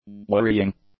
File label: "worrying", english; adjectives